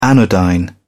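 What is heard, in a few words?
"anodyne", British English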